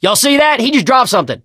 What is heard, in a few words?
fallen survivor, survivor voice; Left 4 Dead 2; Source Engine; video games